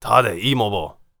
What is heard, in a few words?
warrior conversation emotion neutral